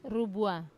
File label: "rubua", sand, wamesa